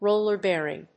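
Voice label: "roller bearing", English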